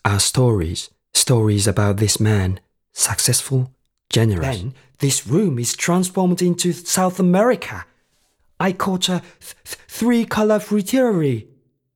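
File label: Japanese English; Japanese, Male, 30s-40s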